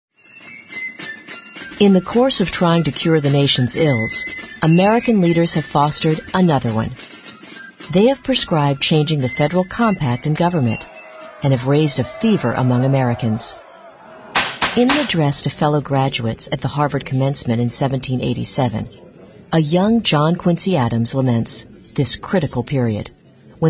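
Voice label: narration, voice talent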